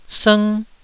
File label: "sng1", Taiwanese syllable